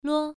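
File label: "luo", tone 1, pronunciation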